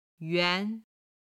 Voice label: "yuan", Chinese